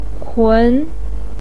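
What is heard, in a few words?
"kun", Mandarin Chinese, second tone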